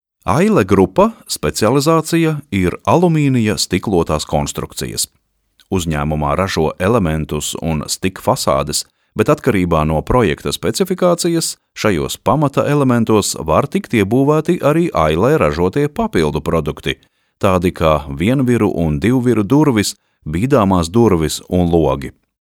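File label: Latvian, male, e-learning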